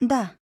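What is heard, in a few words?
agree, Japanese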